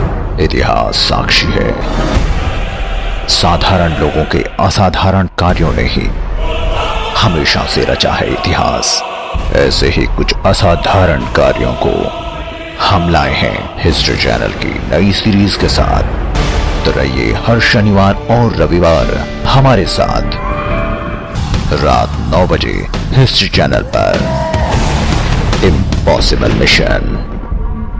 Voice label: promo; History channel